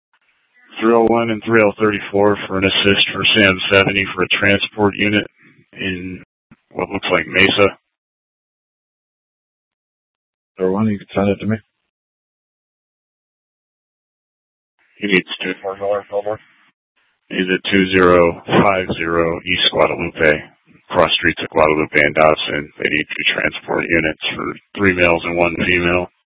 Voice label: PD Dispatch